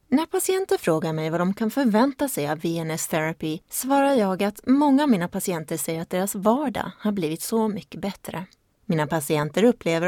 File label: swedish tv shows